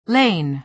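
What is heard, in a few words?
"lain", American English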